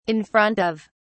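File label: "in front of", British English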